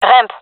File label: ramp, fem; gendarmerie fem epic